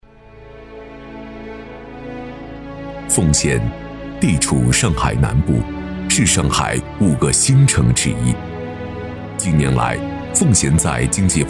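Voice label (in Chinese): 男【宣传片】; 奉贤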